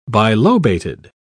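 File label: "bilobated", English, uk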